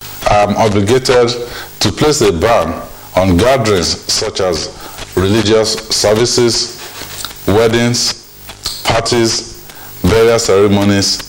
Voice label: Oyo coronavirus broadcast